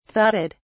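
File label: "thudded", British English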